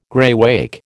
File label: "greywacke", American